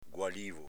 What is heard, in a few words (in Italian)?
"gualivo", Veneto